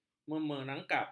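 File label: "memerangkap", Malay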